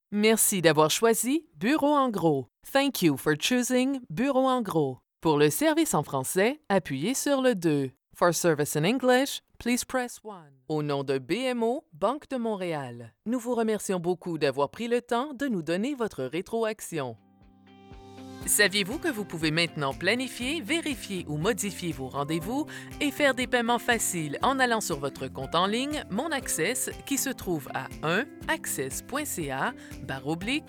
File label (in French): telephonie